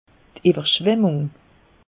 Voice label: "ewwerschwammung", alsace; meteo

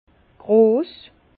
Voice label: "gross", Bas Rhin; vin; grand

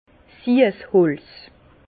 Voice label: "siesholz", Bas Rhin; vin; réglisse

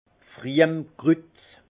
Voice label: "pfriemkrut", Alsace; vin; genêt

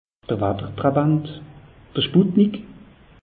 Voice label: "watter trabant", alsace; meteo

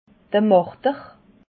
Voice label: "marder", alsace

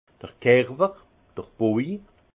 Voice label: "kerwer", alsace; vin; texture

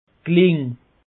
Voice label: "kling", alsace; peche

